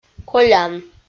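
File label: "колян", Komi, female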